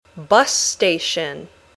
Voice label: "bus station", English

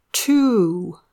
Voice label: "two", English

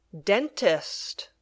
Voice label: "dentist", English

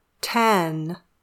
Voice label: "ten", English

